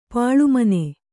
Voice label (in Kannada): "ಪಾಳುಮನೆ", Kannada